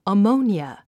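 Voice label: "ammonia", English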